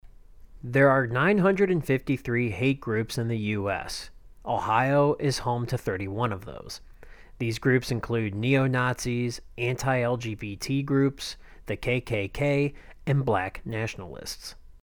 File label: VO GROUP